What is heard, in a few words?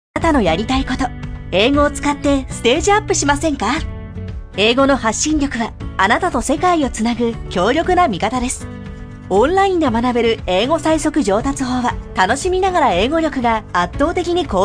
Japanese female corporate video; người Nhật bản địa